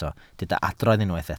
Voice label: "adrodd", Welsh

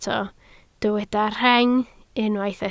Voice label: "rheng", Welsh

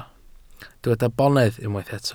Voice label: "bonedd", welsh

